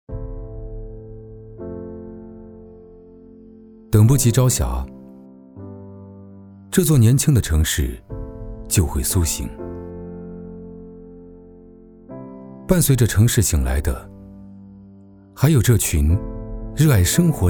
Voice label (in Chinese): 男 都市青年 质感态度